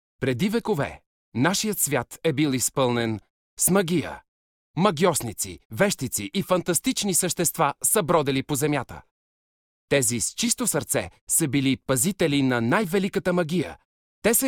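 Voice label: Bulgarian, male, narration; voice demos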